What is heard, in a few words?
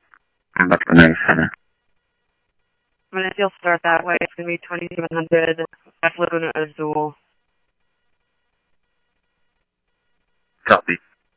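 police radio scanner traffic, Mesa Simulcast; MESA PD 1 Fiesta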